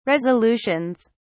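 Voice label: "resolutions", British English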